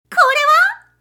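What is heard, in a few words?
female; これは!; キュート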